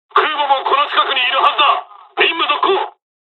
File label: dialog, dialogs japanese; us midway; War Thunder